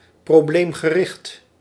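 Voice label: "probleemgericht", Dutch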